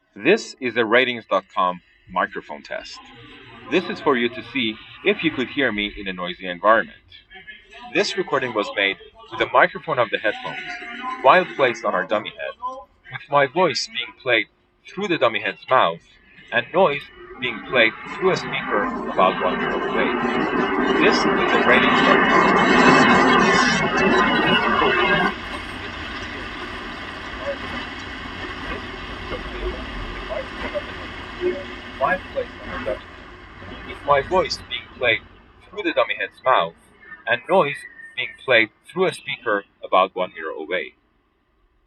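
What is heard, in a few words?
usb internal mic subway, gaming headset; Swedish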